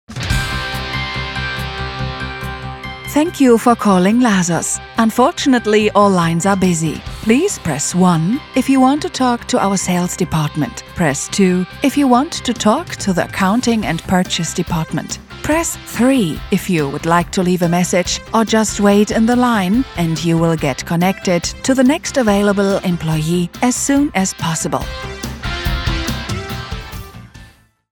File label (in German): automatische Vermittlung englisch; Lasos GmbH